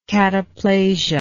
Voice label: "cataplastic", English